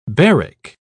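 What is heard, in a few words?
"baric", British English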